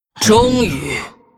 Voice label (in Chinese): Hero female demon hunter morphed yes